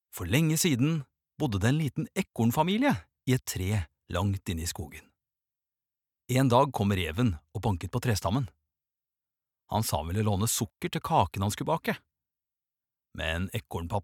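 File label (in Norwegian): barnebok, Norwegian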